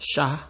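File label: "sha", Nigerian Pidgin, male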